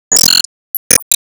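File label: Voice Emo Praise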